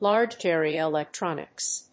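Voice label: "large-area electronics", English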